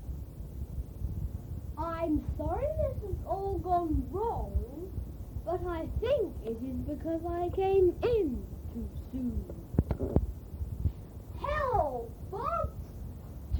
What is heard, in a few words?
A Speech, Announcement then a pop song